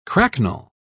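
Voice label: "cracknel", British English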